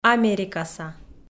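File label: "америкаса", Komi-Zyrian, female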